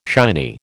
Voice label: "shiny", American

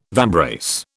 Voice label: "vambrace", British

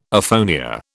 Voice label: "aphonia", British

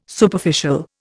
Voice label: "Superficial", Indian

